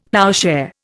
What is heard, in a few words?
"ploughshare", Indian; Dictionary